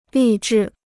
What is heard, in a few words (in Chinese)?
"励志", Chinese